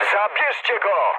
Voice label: aircraft damaged mood med, speech pol